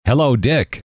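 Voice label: "hello", male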